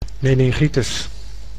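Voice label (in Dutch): "meningitis" Dutch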